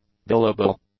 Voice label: "billable", English; adjectives